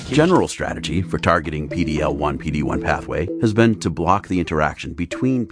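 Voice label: Medical Narration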